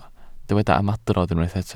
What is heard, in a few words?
"ymadrodd", Welsh